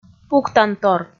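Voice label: "пуктантор", Komi, female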